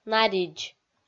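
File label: "наридз", Komi, female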